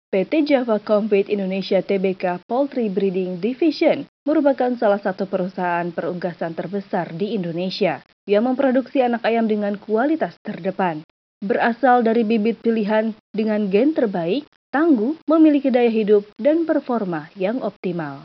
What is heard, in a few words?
Indonesia FORMAL